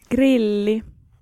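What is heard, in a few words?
Finnish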